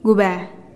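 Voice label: "gubee", Teotitlán del Valle Zapotec; small broom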